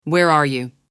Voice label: female Where are you